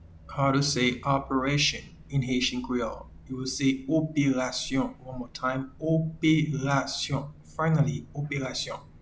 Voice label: Operation in Haitian Creole Operasyon

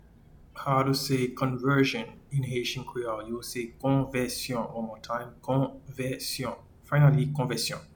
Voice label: "Conversion" in Haitian Creole, "Konvèsyon"

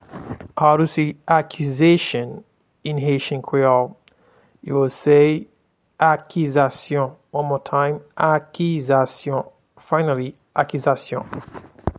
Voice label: Accusation in Haitian Creole, Akizasyon

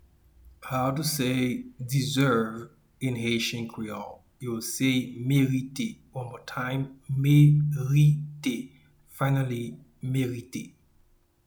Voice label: "Merite", Haitian Creole pronunciation; “Deserve” is translated as “Merite” in Haitian Creole

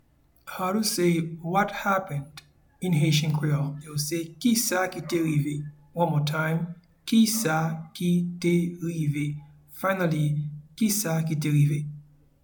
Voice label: What happened in Haitian Creole Kisa ki te rive

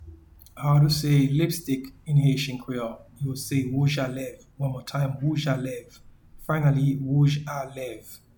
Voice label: Lispstick in Haitian Creole Woujalev

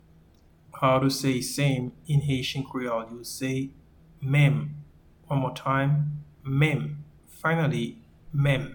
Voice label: "Same" in Haitian Creole, "Menm"

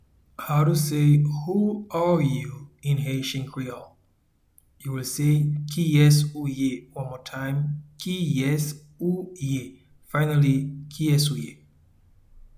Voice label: Who are you in Haitian Creole Kiyes ou ye

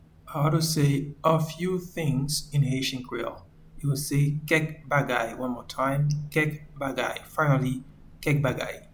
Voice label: A few things in Haitian Creole Kek bagay

